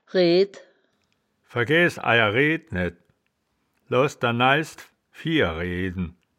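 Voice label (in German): "red", wittlicher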